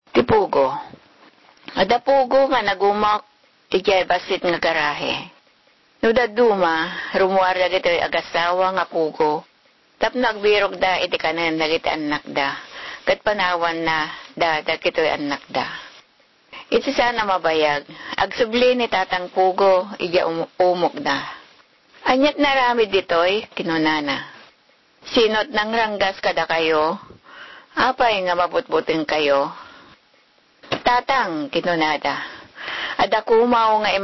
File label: iloko